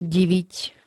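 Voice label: "diviť", Slovak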